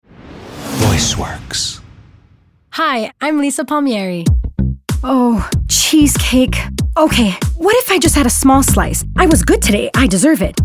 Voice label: Commercial Voice